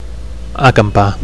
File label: "acampar", Catalan